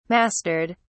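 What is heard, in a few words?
"mastered", British English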